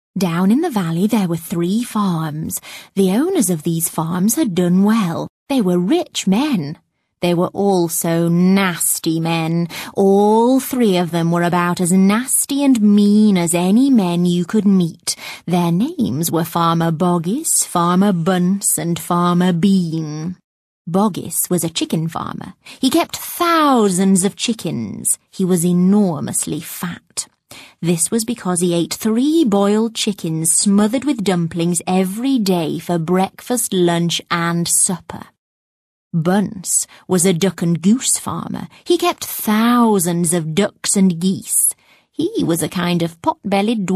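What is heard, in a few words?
drama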